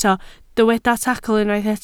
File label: "tacl", Welsh